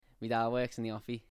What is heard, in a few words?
scouse